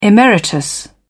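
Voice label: "emeritus", British English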